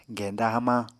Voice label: "genda hamañ", Apatani

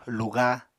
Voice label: "luga", Apatani

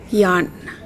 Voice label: "sand", Yapese

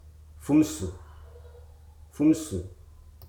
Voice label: "jackal", Hruso

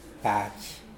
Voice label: "pahj", Mokilese

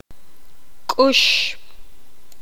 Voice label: poqomam; coraz n

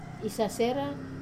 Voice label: "sasera", seek, wamesa

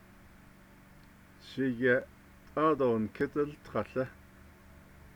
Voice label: navajo; My son don't stomp your foot

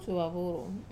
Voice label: "suovavuru" mustache, Wamesa

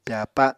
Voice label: "pyàpa", apatani

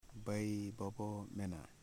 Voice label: "enemy", Foe

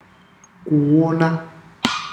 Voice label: "see", ndau

